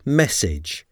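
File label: "message", English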